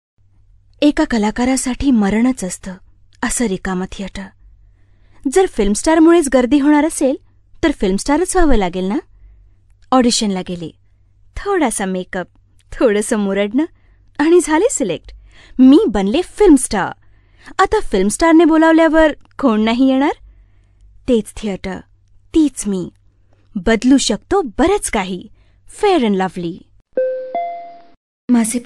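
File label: Marathi General; GoLocalise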